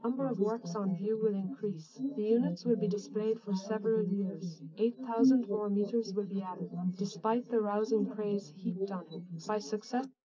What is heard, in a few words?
female, 130ms, 20cm